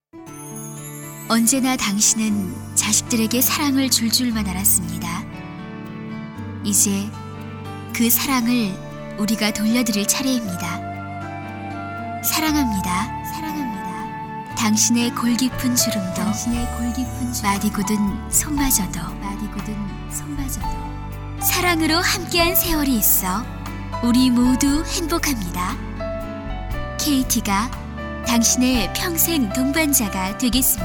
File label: korean f